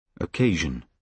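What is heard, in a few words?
"occasion", British English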